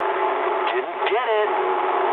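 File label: bomb failure mood high, speech English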